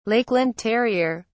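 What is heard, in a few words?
"lakeland terrier", British English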